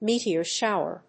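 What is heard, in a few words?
"meteor shower", English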